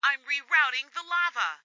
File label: Radio Chatter "I'm rerouting the lava!"